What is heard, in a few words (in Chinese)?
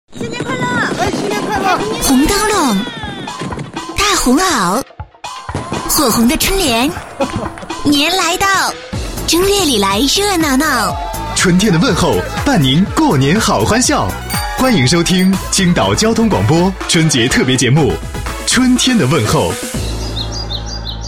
女 广告 春天的问候